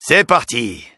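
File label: French Positive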